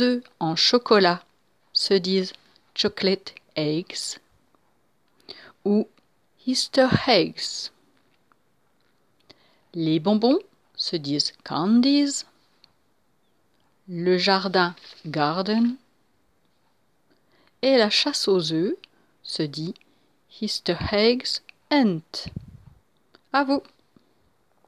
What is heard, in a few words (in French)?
pâques anglais